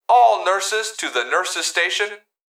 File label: Human Male Voice Hospital; Emergency